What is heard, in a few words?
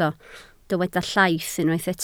"llaith", Welsh